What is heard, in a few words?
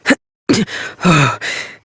Horse Dismount Adult; Duviri